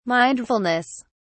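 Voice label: "mindfulness", British English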